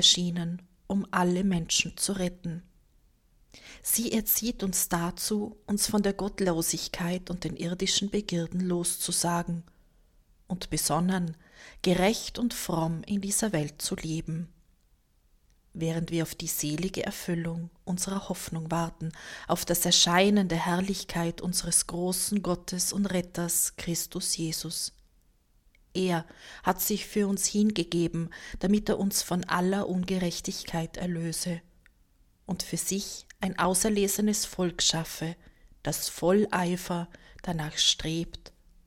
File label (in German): Hl Nacht 1. Lesung